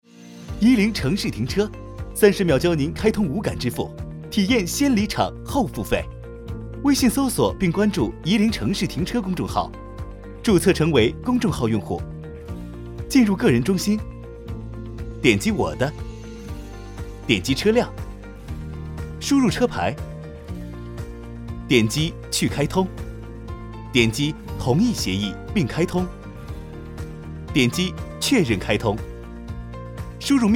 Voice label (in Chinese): 男 产品解说 智能停车